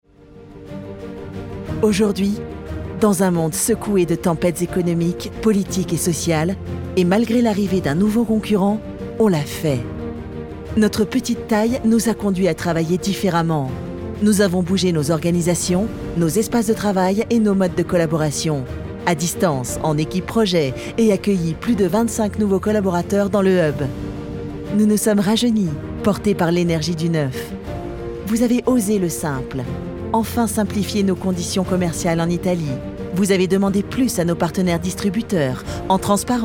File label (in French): Admiratif souriant; EDGEWELL